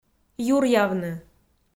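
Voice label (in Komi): "юръявны" Komi, female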